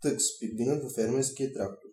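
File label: tux tractor, Bulgarian; tuxpaint-stamps; vehicles; farming; cartoon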